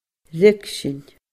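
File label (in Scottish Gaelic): "ruigsinn", Scottish Gaelic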